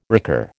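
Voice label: "recur", American English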